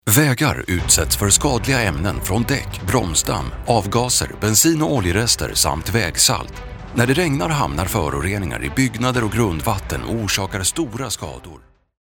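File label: Swedish, male, corporate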